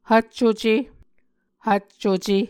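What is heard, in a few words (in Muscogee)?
"hvccuce", Muscogee word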